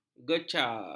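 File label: "gecar", Malay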